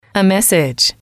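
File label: "message", female, english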